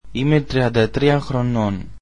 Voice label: greek Im thirty three years old

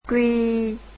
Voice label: "q", vietnamese alphabet

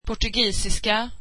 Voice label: swedish Portuguese

